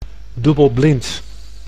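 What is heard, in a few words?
"dubbelblind", Dutch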